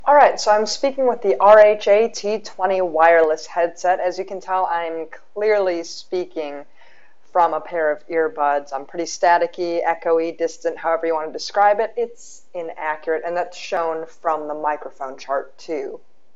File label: RHA T20 Wireless microphone